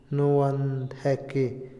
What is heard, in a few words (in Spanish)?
lugar para trillar, Otomí, hombre; INALI